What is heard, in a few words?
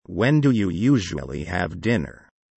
low